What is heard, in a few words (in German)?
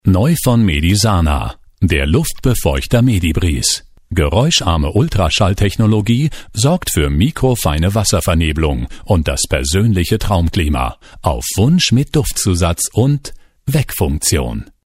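werbung, deutsch männer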